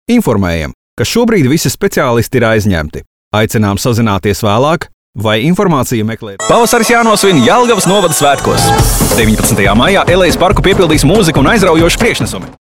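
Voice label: Latvian, male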